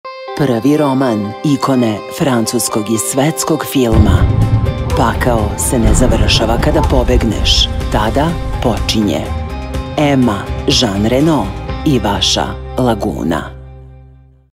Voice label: Television Spots, Serbian, Female Voice; Laguna; Zan Reno

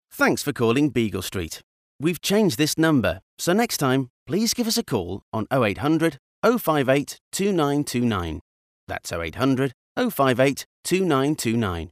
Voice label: IVR sample

